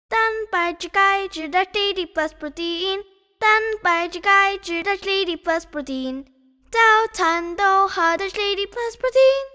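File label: Mandarin COLD VOX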